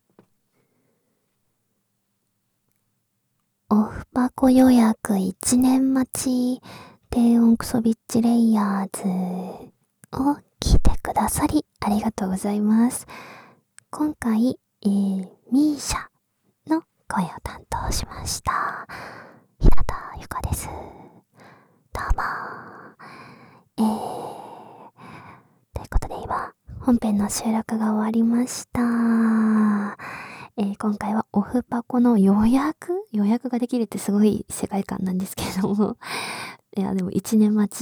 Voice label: フリートーク; KU100; オフパコ予約1年待ち! 低音クソビッチレイヤーズ♪

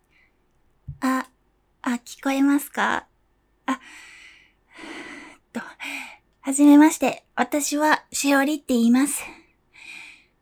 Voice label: 自己紹介インタビュー

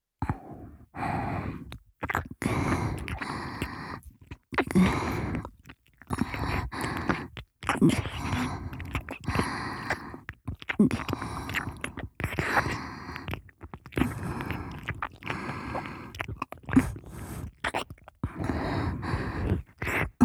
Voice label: おまけ～スペンス乳腺圧迫＋乳首開発ナビゲーション音声, ASMR; 乳クリトリスの♀イキ神経開発!!!

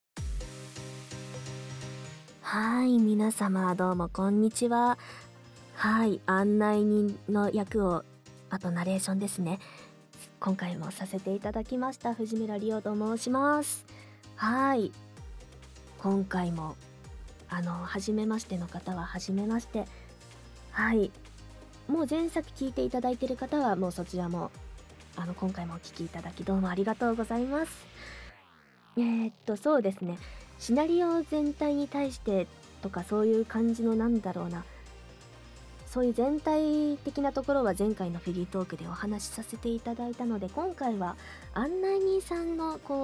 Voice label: フリートーク, ASMR; KU100

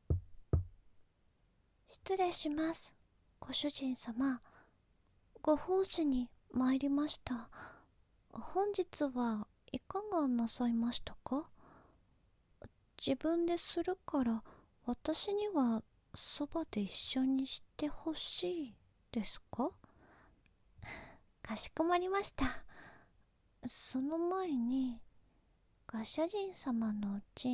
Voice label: メイド, 実演シチュボ, えちえちメイドさんと一緒にオナニー☆最後はカウントダウンで焦らされながら一緒に絶頂☆; 女仆; 自慰; 舔耳

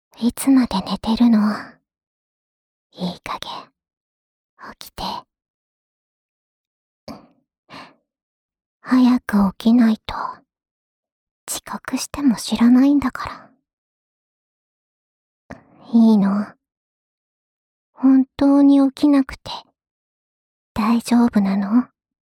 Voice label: 目覚まし ボイス; 春日野穹; 春日野穹のいる生活; KU100バイノーラル

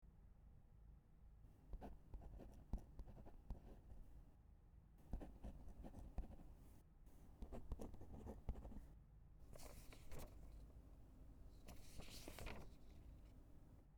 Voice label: お耳かきなどいかがでしょうか？(BGMなし), ASMR

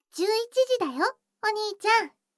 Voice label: 時報11時; 全肯定ロリママのあまあまでえっちな添い寝; いもおかASMR

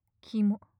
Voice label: "きも" 近 左, ASMR; マゾオスのあなたに敗北射精の快楽を叩き込む音声

